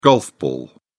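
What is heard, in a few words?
"golf ball", British English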